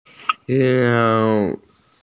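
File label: "sou1", Mandarin Chinese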